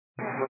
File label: 122 725MHz, scanner radio